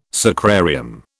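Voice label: "sacrarium", British English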